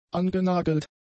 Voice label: "angenagelt", German; adjectives

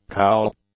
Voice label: "cowl", English

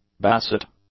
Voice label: "basset", English; nouns

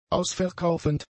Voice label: "ausverkaufend", German; adjectives

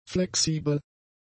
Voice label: "flexibel", German; adjectives

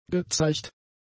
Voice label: "gezeigt", German; adjectives

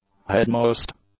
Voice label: "headmost", English; adjectives

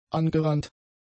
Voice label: "angerannt", German; adjectives

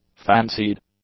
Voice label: "fancied", english; adjectives

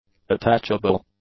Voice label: "attachable", English; adjectives

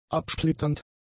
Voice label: "absplitternd", German; adjectives

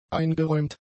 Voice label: "eingeräumt", German; adjectives

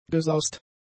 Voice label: "gesaust", German; adjectives

